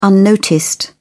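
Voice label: "unnoticed", British English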